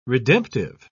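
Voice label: "redemptive", English, British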